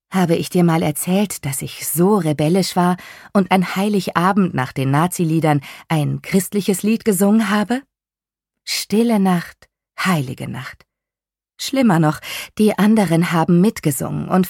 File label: german audiobooks, german voice actors